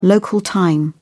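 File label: "local time", English, British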